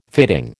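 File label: "fitting", American English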